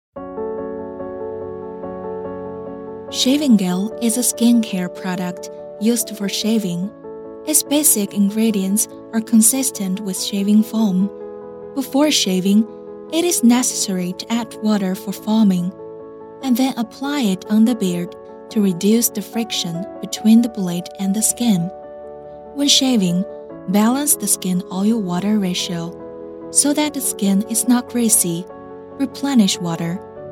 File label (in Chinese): 美式女宣传片